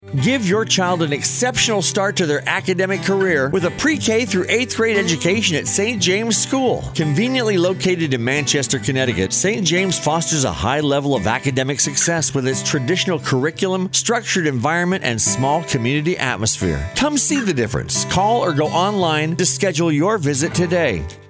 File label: radio commercial; st james